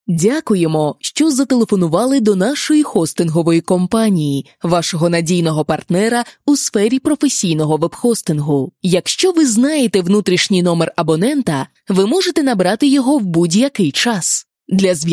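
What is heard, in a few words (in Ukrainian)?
Ukrainian, Phone IVR; GoLocalise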